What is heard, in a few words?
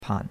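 "pan", Chinese, third tone; Cours-de-Chinois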